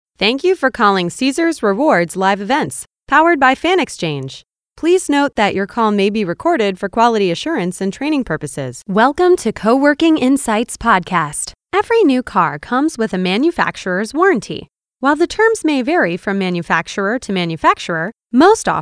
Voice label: US PHONE